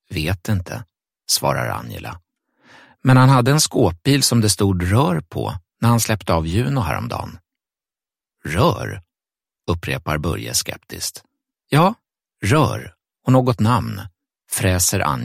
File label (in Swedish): Ljudbok; Juno